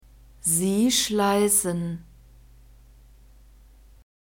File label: "sie schleissen", German; verbs present tense irregular